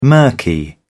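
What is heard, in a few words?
"murky", British English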